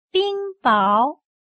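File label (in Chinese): "冰雹" Mandarin Chinese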